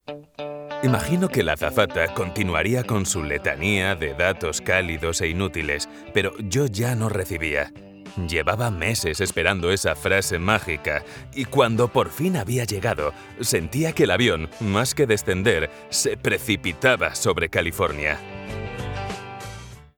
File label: spanish narration